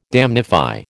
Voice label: "damnify", American